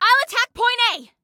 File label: attack, Female; Female 02